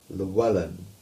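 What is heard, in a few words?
"Lawellan", English; aka Lawellen; place names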